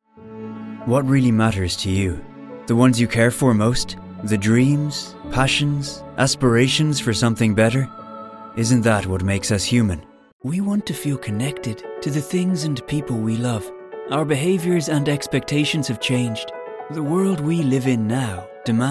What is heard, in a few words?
narration